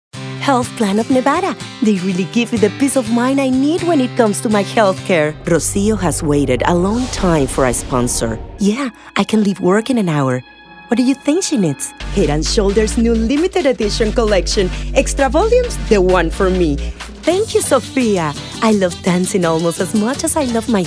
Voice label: spanish accented english

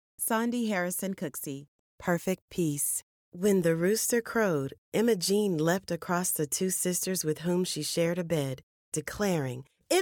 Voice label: women audiobooks

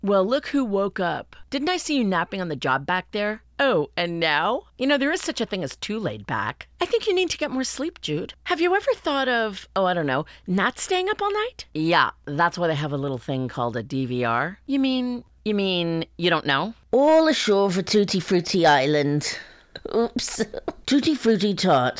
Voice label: women animation

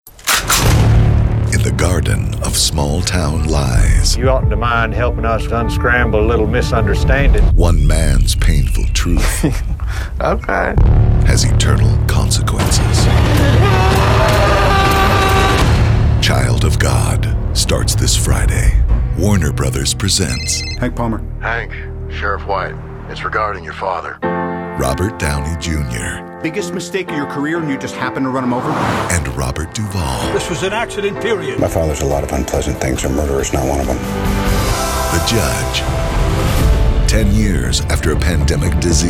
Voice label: men trailers

